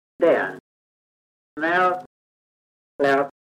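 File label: kent farningham, male, mouth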